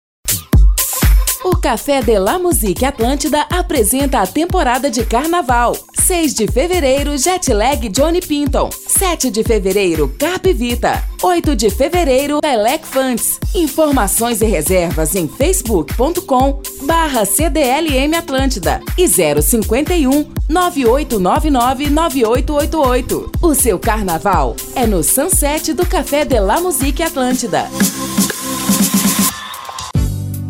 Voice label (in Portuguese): locutora